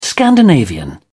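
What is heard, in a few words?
"scandinavian", British English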